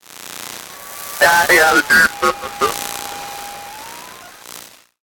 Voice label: radio transmission radio chatter voice interference; Interception; Bluezone